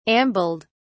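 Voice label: "ambled", English, British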